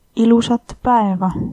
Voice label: have a nice day, estonian

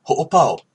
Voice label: stop, hawaiian

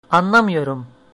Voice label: no understand, turkish

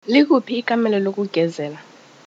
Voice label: toilet, zulu

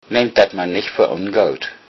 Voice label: excuse me, low saxon

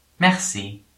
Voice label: thanks, luxembourgish

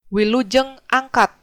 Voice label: goodbye, sundanese

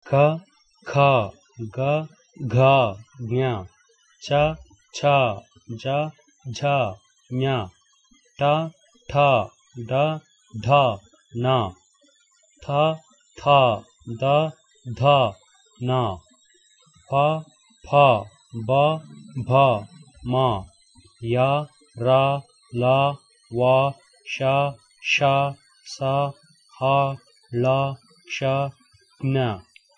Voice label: consonants, kannada

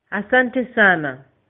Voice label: thanks, swahili

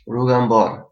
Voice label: bon voyage, albanian gheg

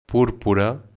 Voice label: "purple", Catalan

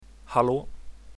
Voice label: hello, swedish

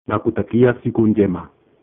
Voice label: have a nice day, Swahili

